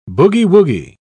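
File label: "boogie-woogie", British English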